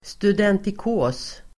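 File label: "studentikos", Swedish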